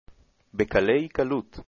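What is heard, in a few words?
easy Hebrew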